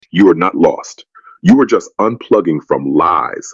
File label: father oracle voice